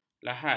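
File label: "lahad", Malay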